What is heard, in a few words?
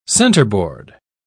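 "centreboard", British English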